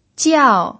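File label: "jiao" fourth tone, pinyin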